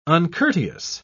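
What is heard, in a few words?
"uncourteous", British English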